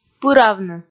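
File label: "пыравны", Komi, female; kpv audio dict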